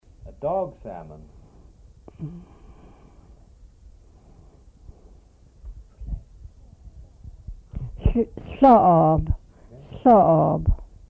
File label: "dog salmon", Twana